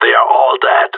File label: no enemies left, radio; GSG9